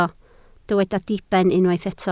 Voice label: "diben", Welsh